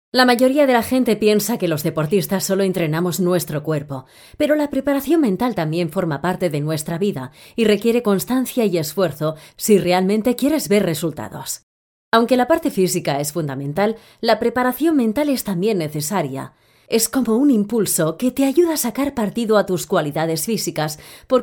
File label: Spanish TV shows